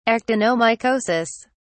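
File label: "actinomycosis", British English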